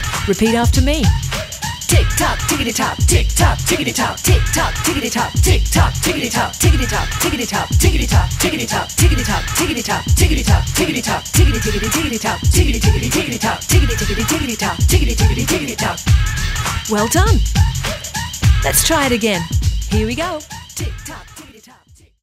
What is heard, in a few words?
Articulation Exercise